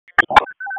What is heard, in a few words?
EMS ALERT